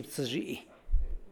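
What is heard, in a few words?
organ pipe cactus, San Lucas Quiaviní Zapotec; gyibtsëzhi; gyibtsëzhi[gyibtsëhzhii’]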